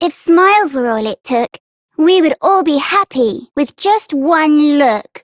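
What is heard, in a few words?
Child Rhymes Happy Child Female; Duviri; Childrens Rhymes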